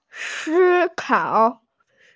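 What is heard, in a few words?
"si1kao3", female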